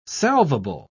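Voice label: "salvable", British English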